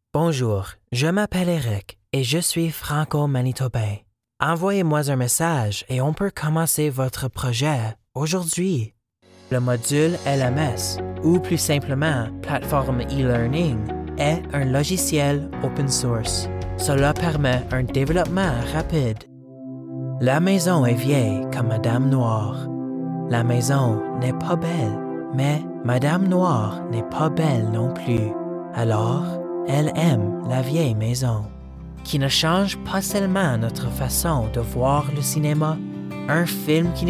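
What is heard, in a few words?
Male, French Canada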